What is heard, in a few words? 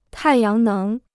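"太阳能", Chinese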